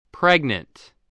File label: "pregnant", voice, spelling